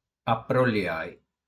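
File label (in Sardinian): "Aproliai", Sardinian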